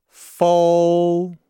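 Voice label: "fou", Chinese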